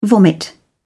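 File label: "vomit", British English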